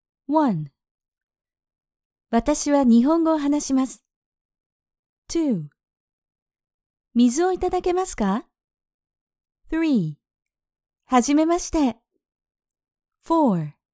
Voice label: Japanese